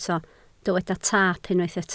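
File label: "taap" Welsh, spoken word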